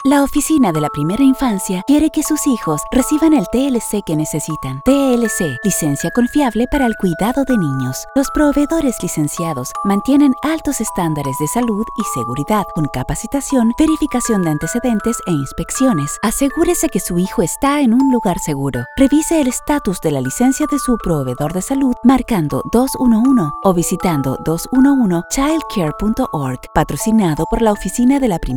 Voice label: radio spanish; trusted licensed care